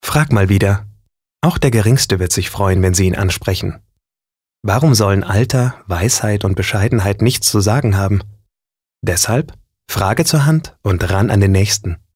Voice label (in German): Werbung Neutral